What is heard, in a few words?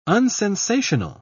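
"unsensational", British English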